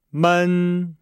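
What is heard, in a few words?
"men", Chinese; Nihao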